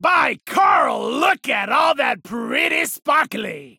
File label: Kill Cry